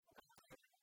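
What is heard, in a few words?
"Бадьёль", female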